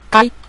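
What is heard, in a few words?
"gai", Mandarin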